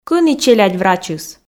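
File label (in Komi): кӧні челядь врачыс, Komi, female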